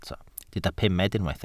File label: "pumed", welsh